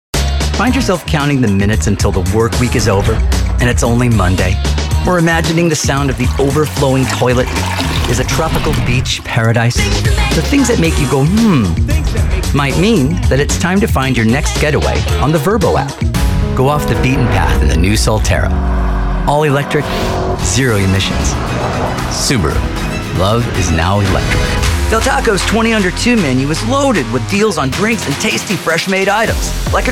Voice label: Commercial